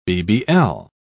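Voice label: "bbl", English, British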